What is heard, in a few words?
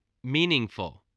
"meaningful", spelling voice